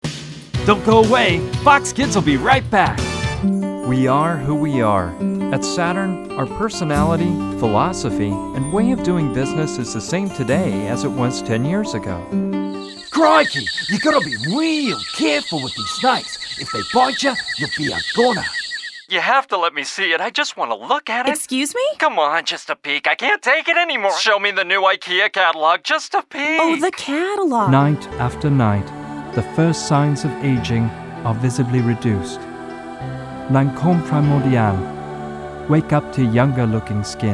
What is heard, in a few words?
coml, voiceover